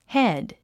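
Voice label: "head", English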